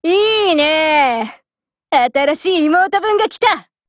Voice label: BUILD OVER JP; GF Alarm; M16A1